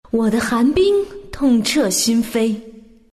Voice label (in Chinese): attack, zh; Dota 2